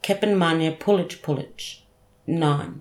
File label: "kepin-manyə-pulety-pulety" nine